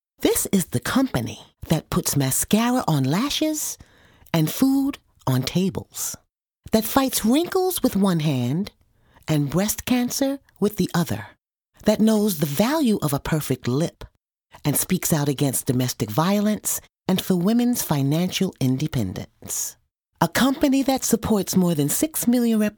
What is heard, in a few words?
Voice Over; Avon